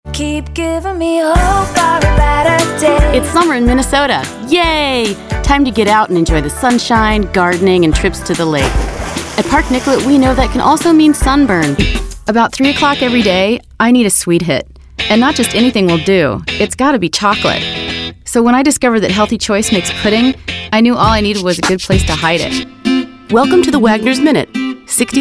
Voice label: Commercial

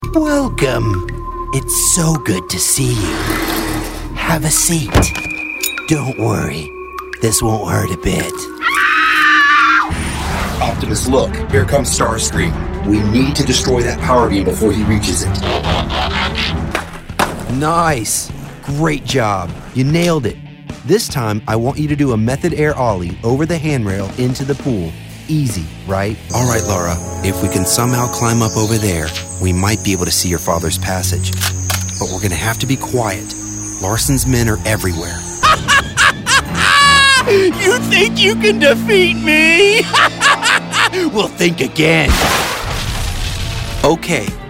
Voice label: animation

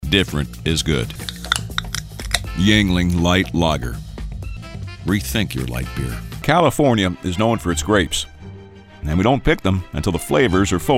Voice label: BROADCAST; voices

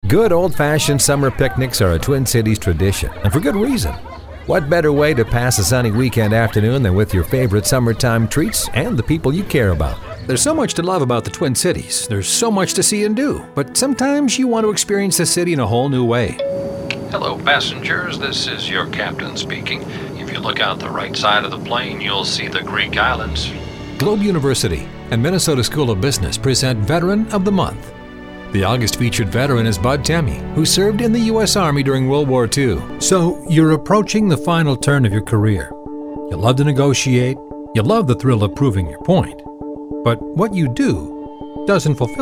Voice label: Commercial; Demo

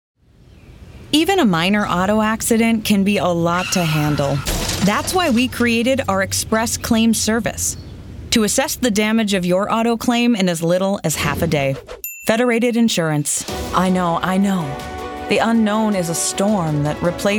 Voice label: Female, commercial

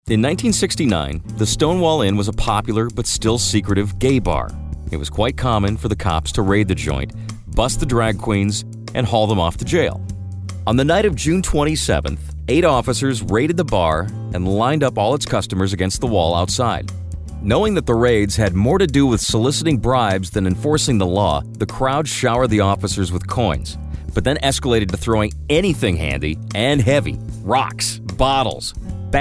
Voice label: audio narration; voices

